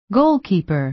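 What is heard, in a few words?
"goalkeeper", American English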